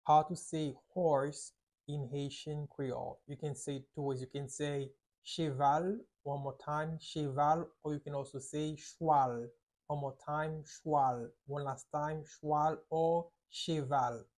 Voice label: How to say Horse in Haitian Creole Cheval pronunciation by a native Haitian tutor